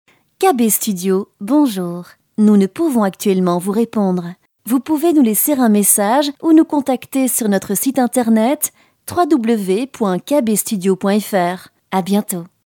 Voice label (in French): French TELEPHONE